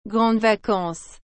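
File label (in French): "grandes vacances", French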